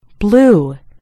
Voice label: "blue", en GB; gcompris

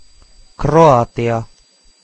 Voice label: "croatia", fi; gcompris-data; geography

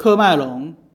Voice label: cameroon; gcompris-data; geography

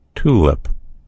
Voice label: "tulip", en US; gcompris

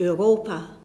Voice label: europe, nl; gcompris-data; geography

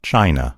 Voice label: "china", en US; gcompris; geography

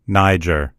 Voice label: "niger", en US; gcompris; geography

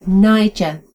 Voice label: "niger", en GB; gcompris

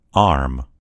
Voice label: "arm", en US; gcompris

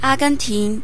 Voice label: "argentina", en; gcompris-data; geography

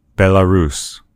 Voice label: "belarus", en_US; gcompris; geography

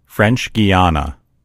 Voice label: "french guiana", en US; gcompris; geography

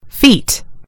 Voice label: "feet", en GB; gcompris

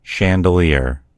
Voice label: "chandelier", en US; gcompris

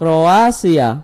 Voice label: "croatia", id; gcompris-data; geography

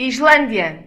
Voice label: "iceland", pt; gcompris; geography

